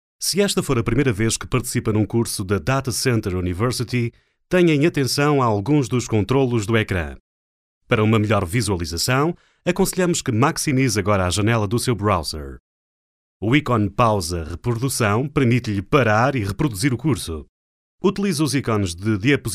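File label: Portuguese European Male, voiceover; Multilingual Voiceover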